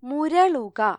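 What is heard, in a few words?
"growl", Malayalam; gcompris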